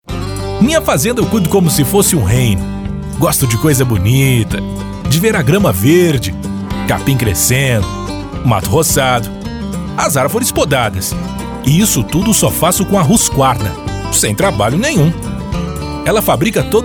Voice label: locucao brasil

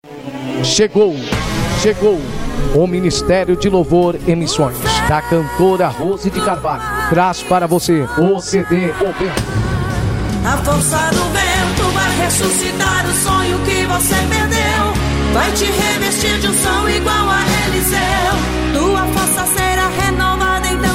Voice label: spot comercial divulgacao

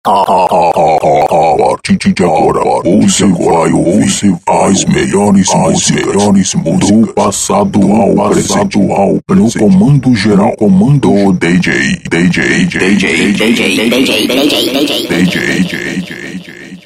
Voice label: vinheta, voz; a partir de agora com dj; estudio de gravacao cg mrproducoes; voz

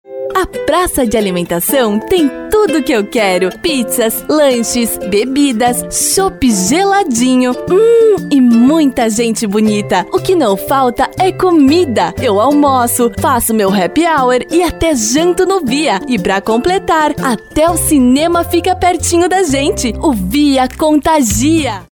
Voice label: voz locutora spot comercial

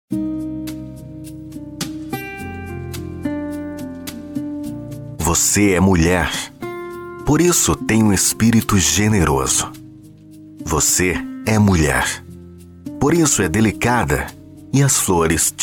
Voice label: mensagem dia internacional das mulheres anuncio vin, Anúncio Vinheta Texto Propaganda Spot Comercial

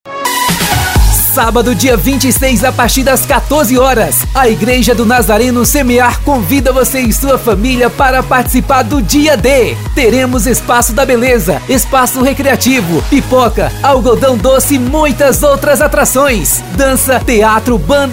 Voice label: voz locutor

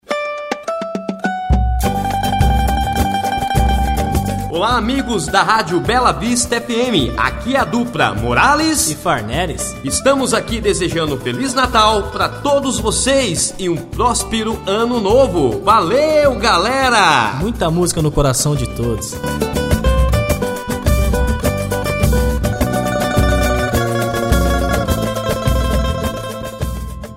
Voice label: Vinheta Fim de Ano Rádio; rádio bela vista fm

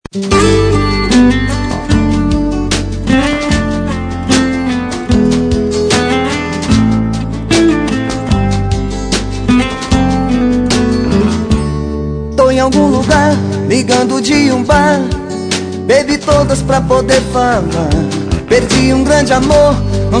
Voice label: Locutor

